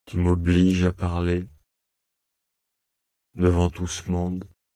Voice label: Neutral bore